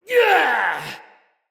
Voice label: Attack Heavy, Soldier Male, Battle; Dendrit